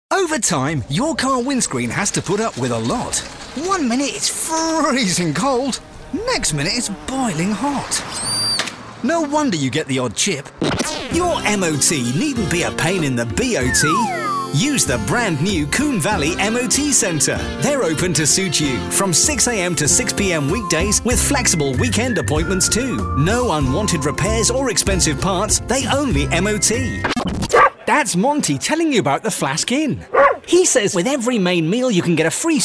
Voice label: commercial friendly natural

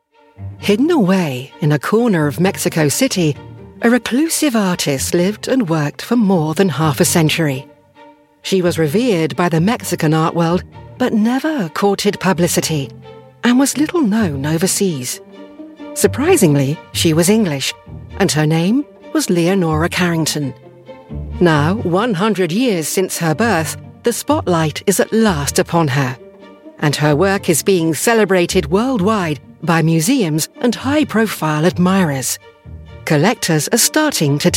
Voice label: narration

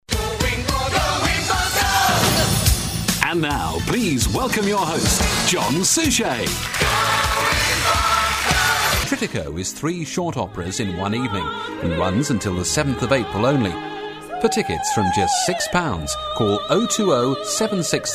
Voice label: tv promos

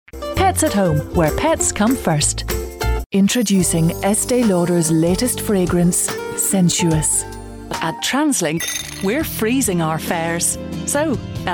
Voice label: northern irish commercials

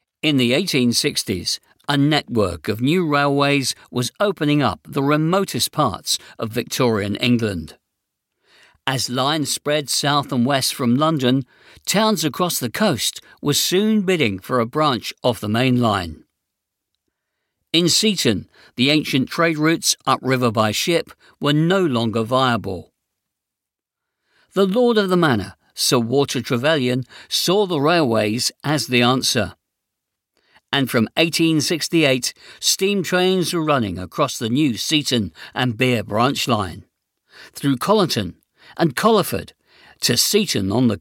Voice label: narration

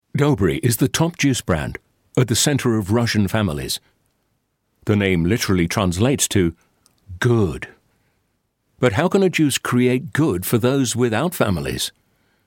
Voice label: documentary

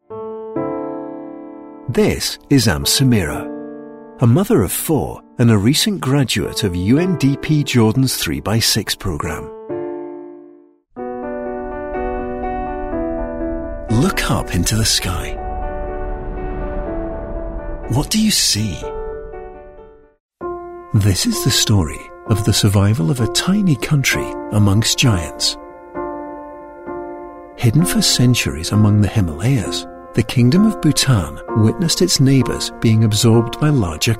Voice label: gentle narration

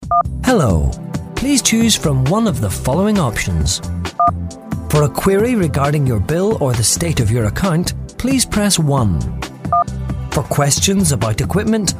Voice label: northern irish male telephone

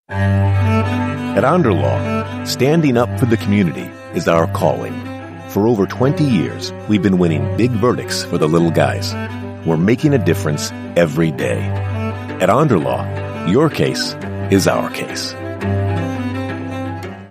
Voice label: american male voice commercial

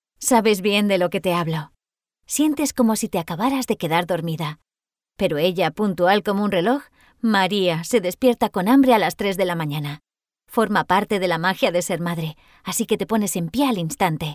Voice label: spanish female corporate

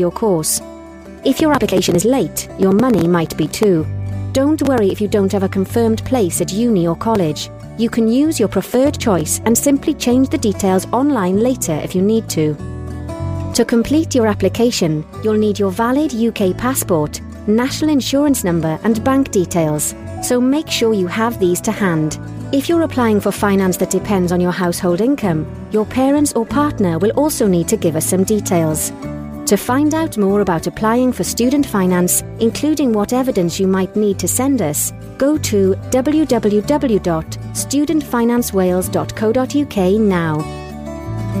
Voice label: welsh accent corporate

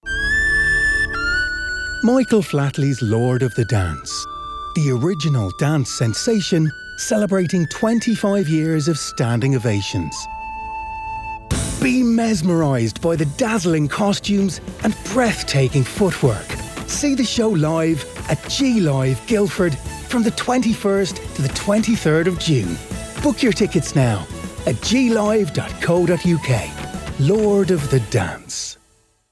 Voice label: republic of ireland accent

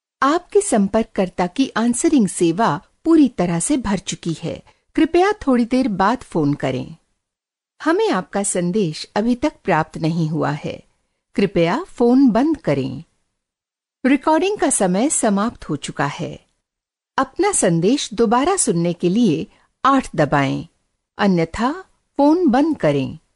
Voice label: hindi

